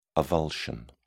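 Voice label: "avulsion", British English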